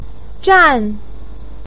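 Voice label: "zhan4", Mandarin Chinese, pinyin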